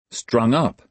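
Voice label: "strung-up", American English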